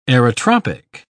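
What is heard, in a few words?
"aerotropic", English, uk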